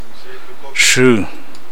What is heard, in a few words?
bone, Namolukese